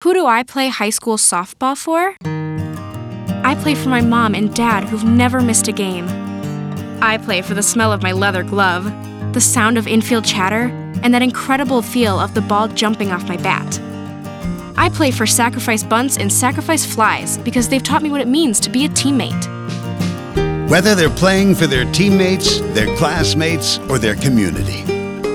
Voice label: audio spot